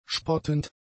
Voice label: "spottend", German; adjectives

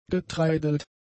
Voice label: "getreidelt", german; adjectives

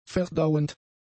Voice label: "verdauend", German; adjectives

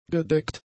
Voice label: "gedeckt", German; adjectives

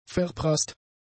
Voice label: "verprasst", german; adjectives

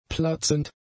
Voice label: "platzend", German; adjectives

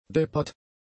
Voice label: "deppert", german; adjectives

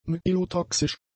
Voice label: "myelotoxisch", German; adjectives

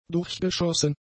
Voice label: "durchgeschossen", German; adjectives

